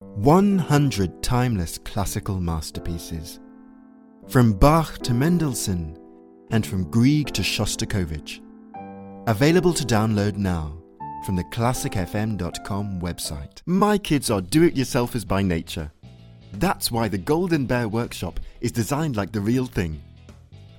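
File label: British English, Commercial; GoLocalise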